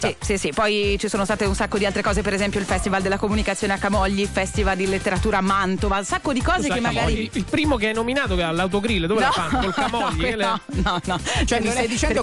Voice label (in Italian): Radio; Deejay; RASSEGNA RADIO 2023